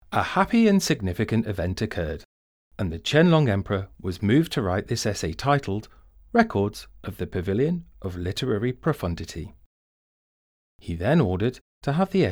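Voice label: English, audio guide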